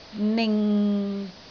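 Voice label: "ning6", Cantonese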